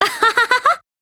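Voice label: MOOD, Japanese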